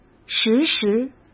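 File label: "时时", Mandarin Chinese; hsk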